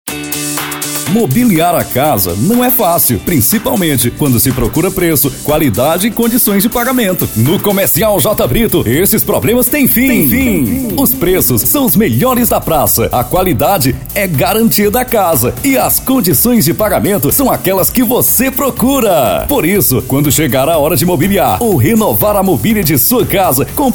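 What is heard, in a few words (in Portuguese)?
Voz Padrão - Grave, Masculino, Português - Brasileiro; Comercial J.Brito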